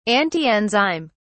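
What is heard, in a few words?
"antienzyme", British English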